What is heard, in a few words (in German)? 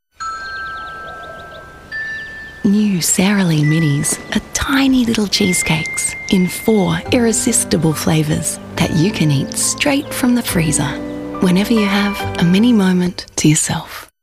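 British English Werbung Sanft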